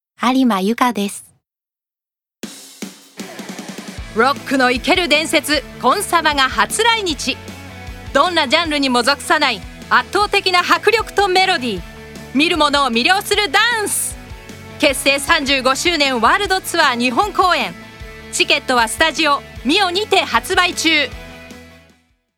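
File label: commercial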